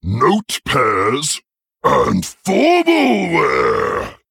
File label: Male Ogre